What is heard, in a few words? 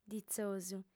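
"ditzosu", Sardinian, female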